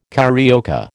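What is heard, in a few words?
"carioca", American English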